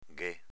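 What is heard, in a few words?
"ghé", Venetian dialect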